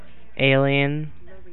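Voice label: "alien", USA, male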